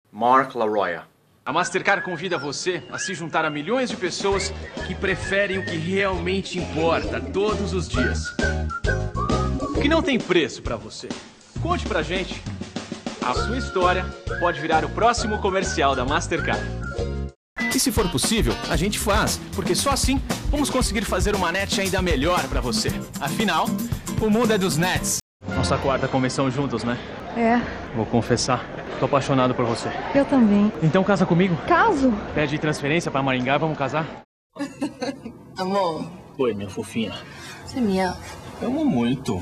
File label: Voice Over Reel - Portuguese